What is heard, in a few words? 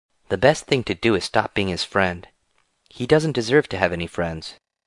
Angry